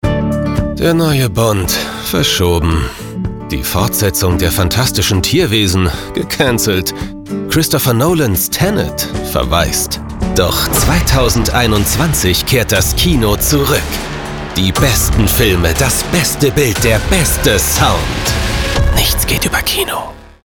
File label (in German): Sprecherdemo Kino